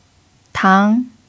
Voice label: "tang2", Chinese pinyin